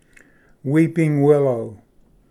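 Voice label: "weeping willow", English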